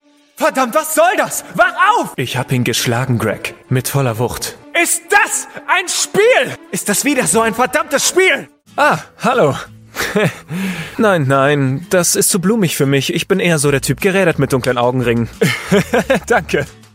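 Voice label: doblaje, German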